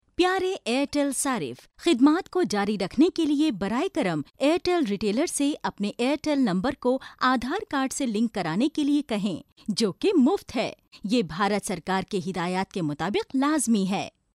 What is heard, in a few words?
urdu female voiceover locutora urdu; locutores urdu india